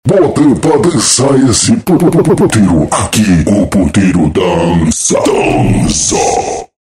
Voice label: vinheta off bota pra dançar esse puteiro aqui o puteiro dança

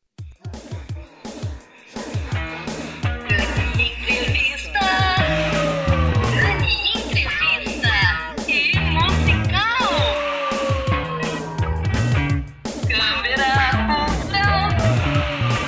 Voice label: tv chamadas quadros; showart

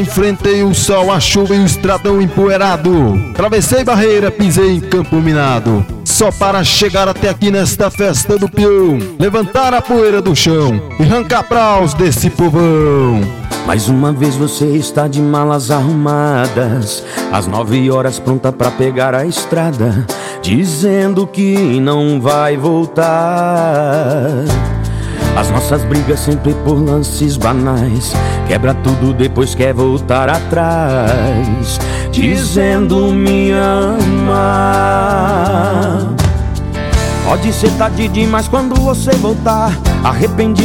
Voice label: locutor e dj

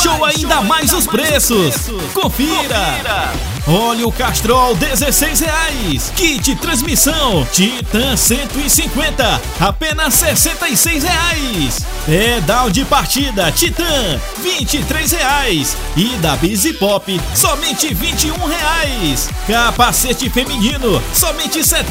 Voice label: spot motos; studio89 audio; hudson motos

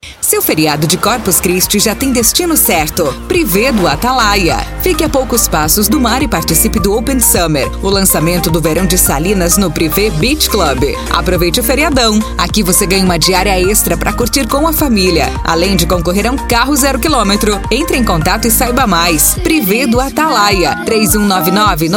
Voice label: spot; studio89 audio; prive do atalaia; feriado corphus chirsti

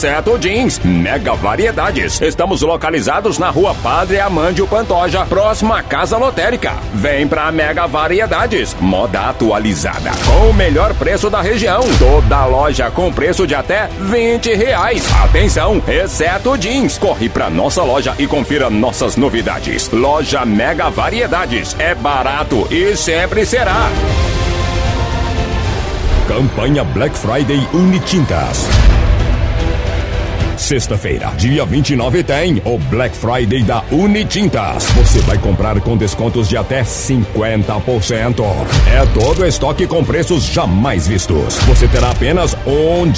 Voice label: publicidade locutor estilo; ricardo eletro

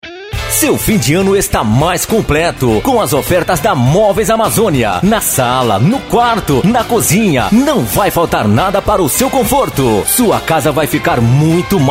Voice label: spot varejo moveis; amazonia

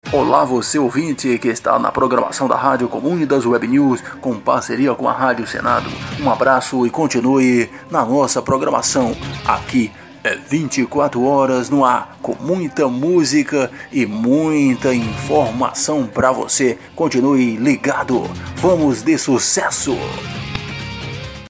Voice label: vinheta de programacao radio; comunidas web news; parceria radio senado; brasilia df

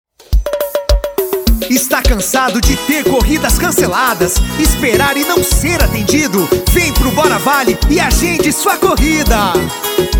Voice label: jingle comercial; pega o guanabara aplicativo bora vale

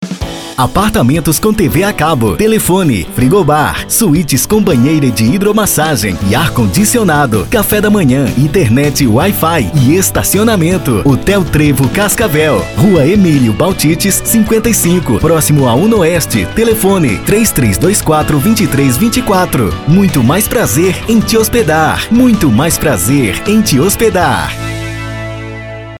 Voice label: VOZ IMPACTO GRAVE vinheta para hotel motel vinhetas spot chamada comercial propaganda

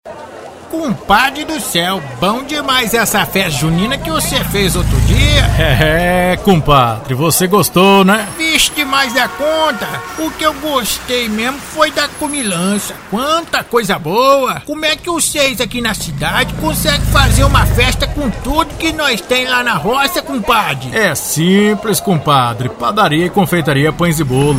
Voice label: audio voz de caipira

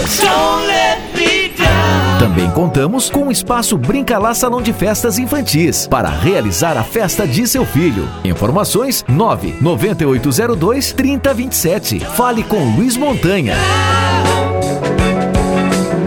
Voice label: locutor restaurante; restaurante catuai

